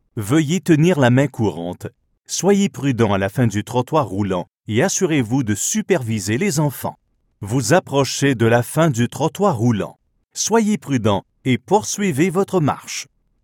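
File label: French Canadian native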